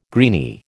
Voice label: "greeny", American

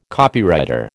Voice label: "Copywriter", American

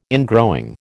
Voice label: "ingrowing", American

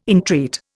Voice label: "entreat", Indian

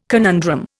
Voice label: "conundrum", Indian

